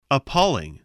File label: "appalling", spelling